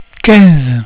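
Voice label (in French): "quinze", French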